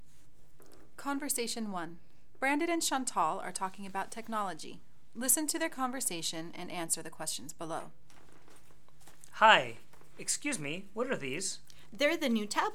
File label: Conversation